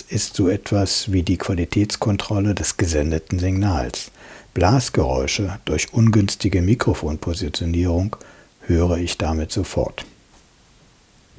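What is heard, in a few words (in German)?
BoomPro an iRig Stream am Handy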